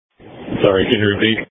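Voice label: Emergency Medical Services Dispatch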